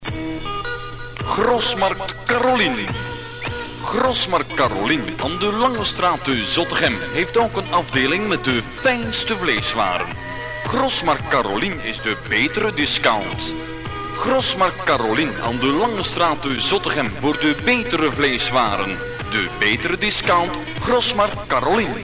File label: commercial